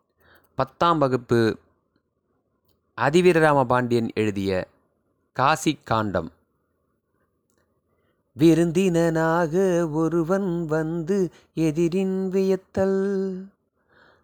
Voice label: tamil memory poem; namma kalvi